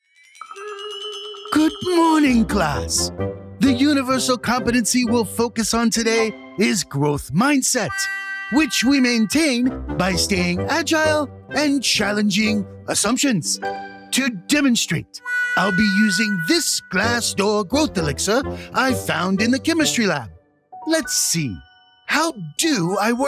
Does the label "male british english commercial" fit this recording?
No